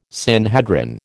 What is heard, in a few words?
"Sanhedrin", American